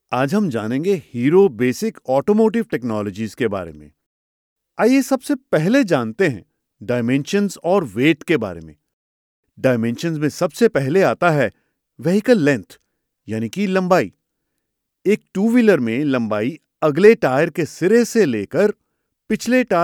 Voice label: Elearn Hindi